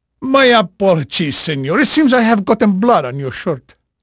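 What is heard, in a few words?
Spanish male, voice; Pirates Of The Caribbean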